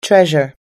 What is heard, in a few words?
"treasure", us-english